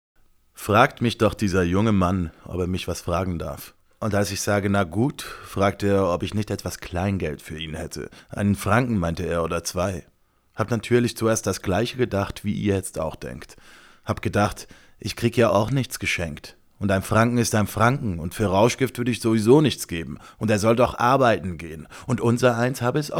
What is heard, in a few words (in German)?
Hochdeutsch laut